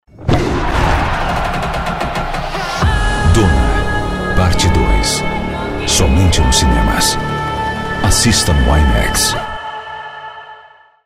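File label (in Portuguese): Português - Brasileiro, Trailer, Voz Padrão - Grave, Masculino; IMAX - Trailer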